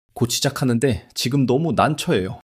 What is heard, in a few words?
fluster